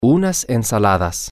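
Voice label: some salads, Spanish, male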